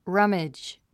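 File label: "rummage", English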